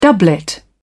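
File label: "doublet", British English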